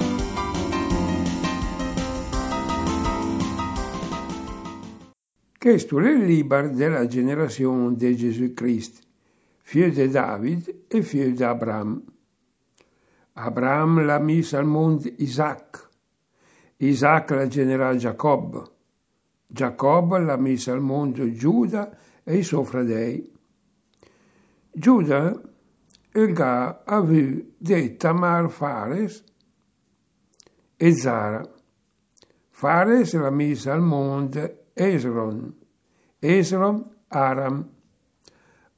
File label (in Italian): dialetto Vangelo matteo